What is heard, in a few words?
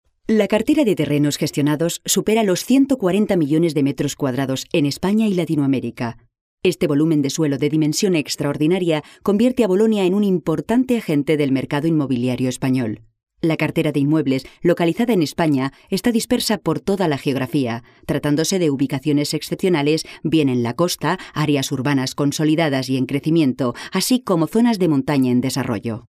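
Spanish Spain female narrative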